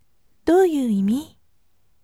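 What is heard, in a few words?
voice, gentle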